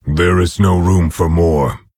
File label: orc emote error full minions; Nerzhul